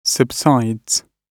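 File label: "subsides", British English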